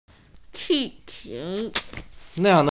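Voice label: "qi4qiu2", Mandarin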